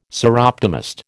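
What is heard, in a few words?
"Soroptimist", American English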